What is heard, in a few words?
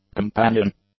"companion", English; adjectives